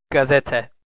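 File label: "gazete", Turkish, male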